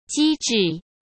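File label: "机制", Mandarin Chinese; hsk; chinese-flashcards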